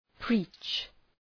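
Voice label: "preach", English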